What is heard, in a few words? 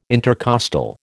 "intercostal", American